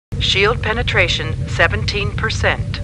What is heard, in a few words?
Computer Voice; Star Trek